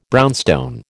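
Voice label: "brownstone", American English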